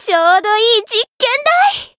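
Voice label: Voice encounter, Japanese; pom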